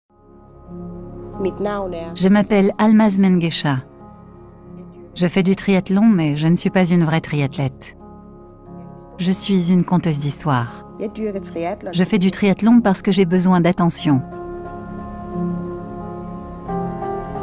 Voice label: voice over voix off; ichi